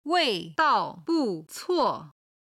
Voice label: "wei2 dao4 bu4 cuo4", chinese

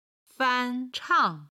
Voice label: "fan1 chang4", chinese

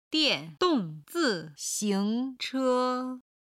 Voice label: "dian4dong4zi4xing2che1", chinese

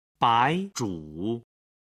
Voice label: "baizhu", Chinese

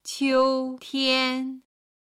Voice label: "qiutian", Chinese

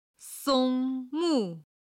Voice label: "song1 mu4", Chinese

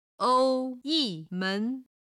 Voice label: "ou1 yi4 men2", Chinese

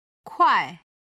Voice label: "kuai", chinese

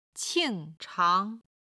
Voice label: "qing4 chang2", Chinese

